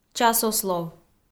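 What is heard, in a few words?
"часослов", Komi, female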